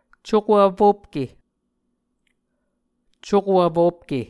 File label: "cuko vfopke", Muscogee; Mvskoke Words with Voicing Consonants; A Dictionary of Creek/Muskogee; SIL International